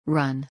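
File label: "run", English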